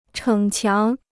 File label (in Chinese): "逞强", Chinese